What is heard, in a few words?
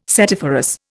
"setiferous", Indian